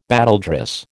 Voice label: "battledress", American